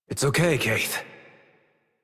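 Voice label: Horse Calm Adult Operator; Warframe; Duviri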